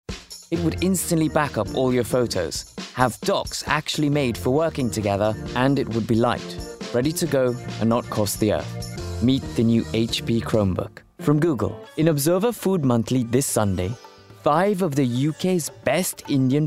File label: Commercial Montage